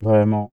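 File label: male "vraiment" flattened transformed, French; CLEESE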